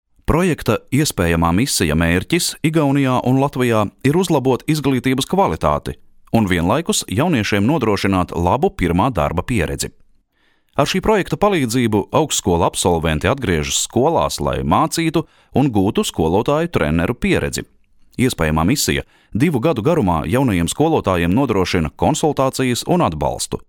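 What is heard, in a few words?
latin male, voice samples commercial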